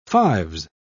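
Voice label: "fives", British English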